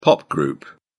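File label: "pop group", British English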